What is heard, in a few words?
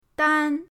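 "dan", Mandarin pinyin, first tone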